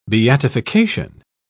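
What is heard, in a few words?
"beatification", British English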